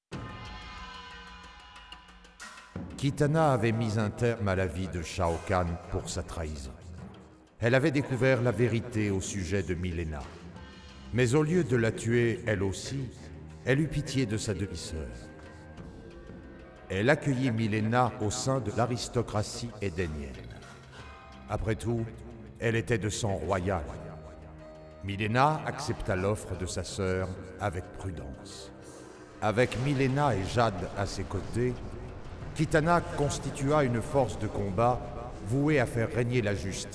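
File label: music voice over endings French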